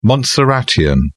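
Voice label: "montserratian", British English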